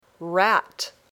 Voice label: "rat", English

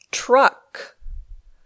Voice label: "truck", English

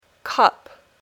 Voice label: "cup", English

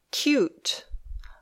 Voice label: "cute", English

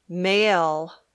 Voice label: male

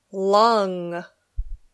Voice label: "lung", English

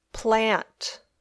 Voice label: "plant", English

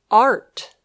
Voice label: "art", English

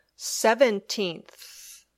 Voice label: "seventeenth", English

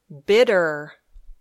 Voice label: "bitter", English

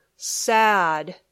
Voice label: "sad", English

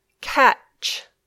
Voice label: "catch", English

